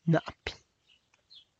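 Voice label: dense of leaves plants, Tlacochahuaya Zapotec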